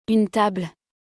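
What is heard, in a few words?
"Une table", French; vocabulaire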